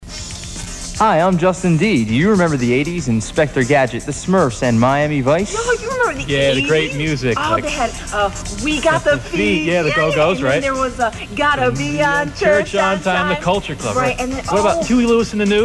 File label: PROMO 80s Show